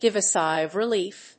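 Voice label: "give a sigh of relief", English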